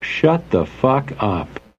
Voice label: shut the fuck up male; Voice; expletives